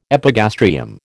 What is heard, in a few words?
"epigastrium", American